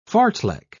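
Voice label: "fartlek", British English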